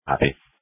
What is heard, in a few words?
Police Tactical Encrypted